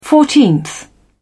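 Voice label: "fourteenth", English, British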